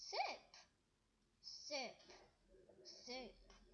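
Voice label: "zip", spoken word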